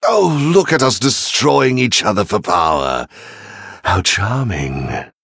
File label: Male Venthyr Threaten